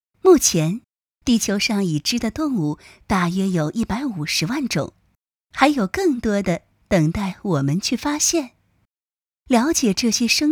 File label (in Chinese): 成女温柔